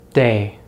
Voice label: "day", American English; easypronunciation